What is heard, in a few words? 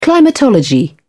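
"climatology", British English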